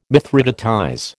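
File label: "mithridatize", American English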